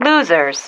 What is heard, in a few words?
"losers", female